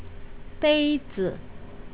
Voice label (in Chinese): "beizi", Chinese pronunciation